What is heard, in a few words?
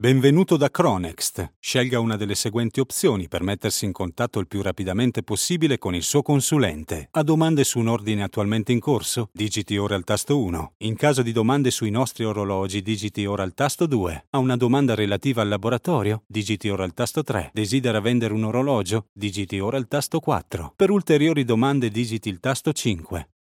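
Italian, IVR; GoLocalise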